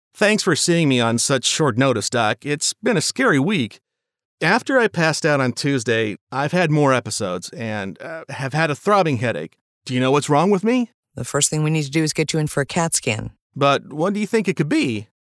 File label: Interactive, male medical voiceovers